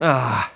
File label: VO en